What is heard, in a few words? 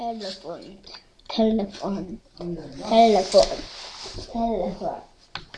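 "telephone", spoken word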